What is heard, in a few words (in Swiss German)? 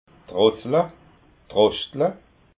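"drossla", Alsatian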